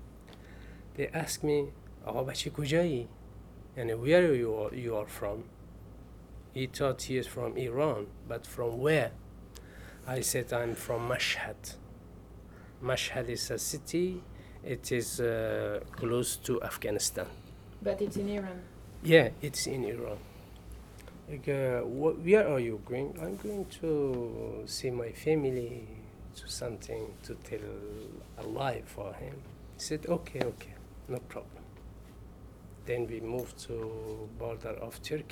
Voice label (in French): DIALOGUE POLICE TURQUE